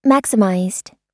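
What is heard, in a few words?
"maximized", American English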